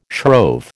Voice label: "shrove", American